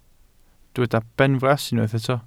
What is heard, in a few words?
"benfras", Welsh